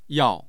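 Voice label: "yao4", Mandarin Chinese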